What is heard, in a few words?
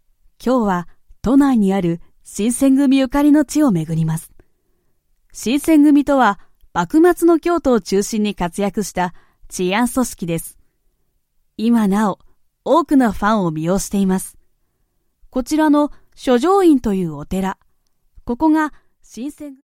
Japanese Narration Historical Travel Programs